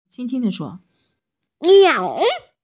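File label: "niao3", Mandarin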